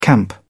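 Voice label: "camp out", British English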